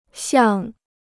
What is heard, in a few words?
"像", Chinese